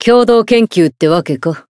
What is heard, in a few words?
zodiac encount second, jp; xianhua